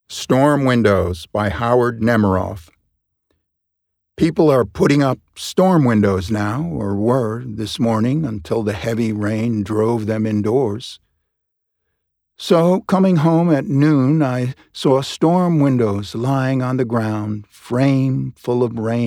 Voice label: Poetry Reading; Storm Windows